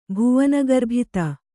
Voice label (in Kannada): "ಭುವನಗರ್ಭಿತ", Kannada; bhuvana garbhita; enveloping the universe.